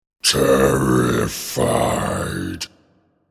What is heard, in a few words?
Terrified Spoken, The word ‘Terrified’ spoken with deep monster voice.; Evil Voices; Horror